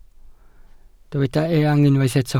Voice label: "eang", welsh